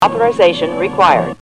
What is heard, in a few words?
authorisation required, computer voice